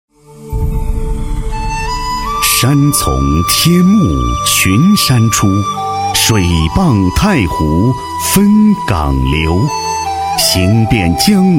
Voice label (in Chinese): 男 专题, 国语男声配音, 浑厚; 湖州鱼米之乡; 浑厚专题